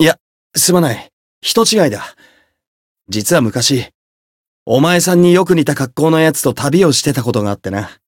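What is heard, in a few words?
Voice story, Japanese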